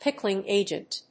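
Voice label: "pickling agent", English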